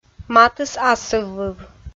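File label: "матыс асыввыв", Komi, female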